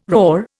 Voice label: "roar", Indian